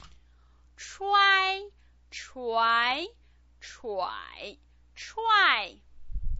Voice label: "chuai", Chinese pinyin